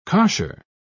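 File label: "cosher", British English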